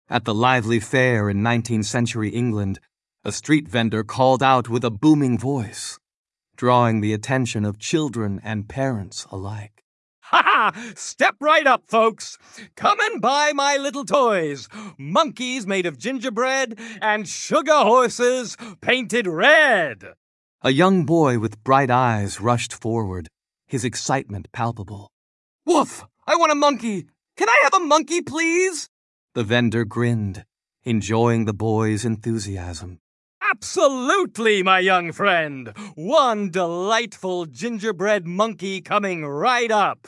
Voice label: Smiling Girls Rosy Boys, male, US, story

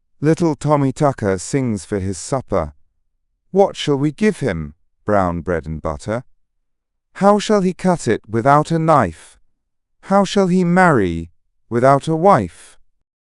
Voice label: male brit; Little Tommy Tucker

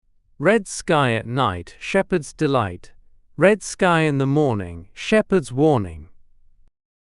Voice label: male brit; Red Sky at Night

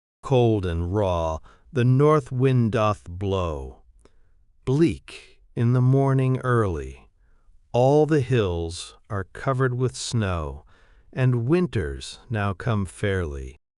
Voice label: male US; Cold and Raw the North Wind Doth Blow

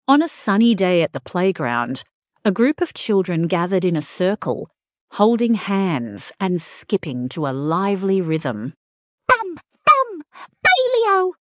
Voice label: fem aus story; Bum Bum Bailey O

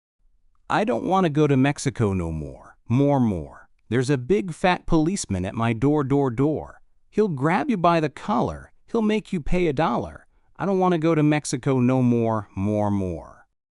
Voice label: I Dont Want to Go to Mexico male us

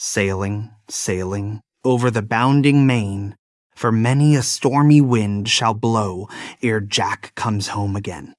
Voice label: male US, classic rhymes; Sailing Sailing

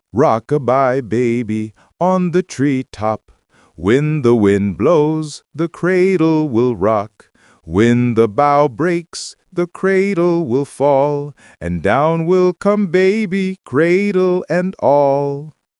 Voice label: male us; Rock a Bye Baby

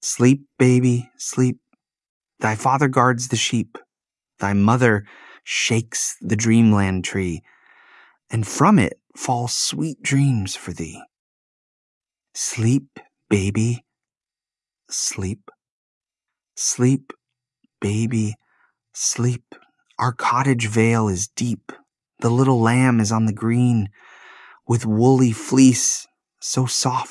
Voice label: male US, classic rhymes

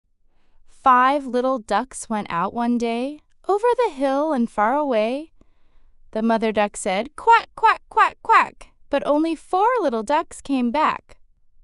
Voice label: fem us; Five Little Ducks

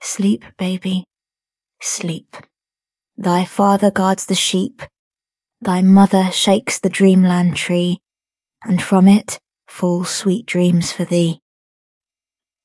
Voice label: fem brit

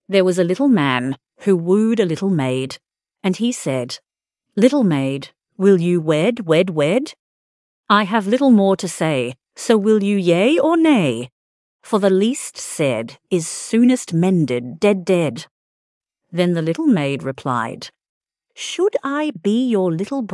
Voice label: fem aus; There was a Little Man

